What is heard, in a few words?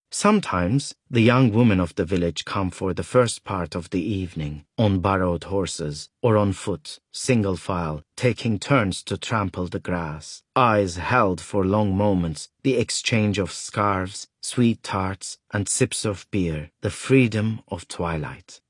Audiobook